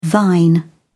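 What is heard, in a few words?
"thine", British English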